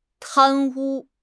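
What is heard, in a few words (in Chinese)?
"贪污", Chinese; HSK6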